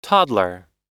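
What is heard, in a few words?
cafe voicemail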